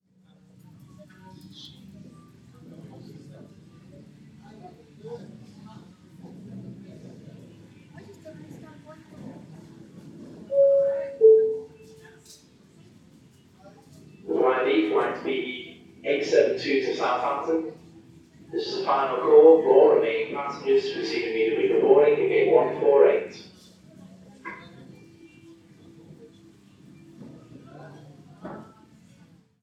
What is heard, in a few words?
Airport Announcement